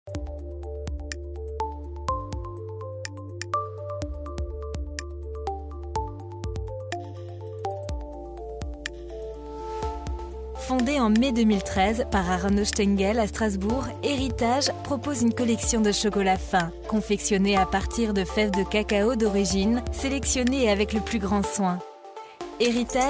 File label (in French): Voix Off feminine